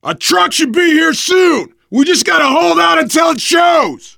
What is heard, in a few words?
radio used truck, voice, biker; Left 4 Dead 2; left4dead2 dlc3; survivor